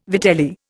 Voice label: "vitelli", Indian